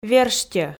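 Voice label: "верстьӧ", Komi, female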